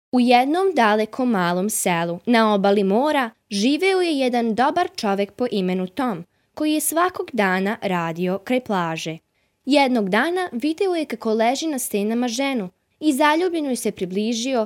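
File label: Serbian teen girl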